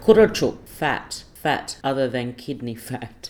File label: "kurratyuk" fat fat other then kidney fat